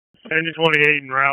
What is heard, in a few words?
FIRE OPS, p25; wake